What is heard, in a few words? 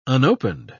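"unopened", British English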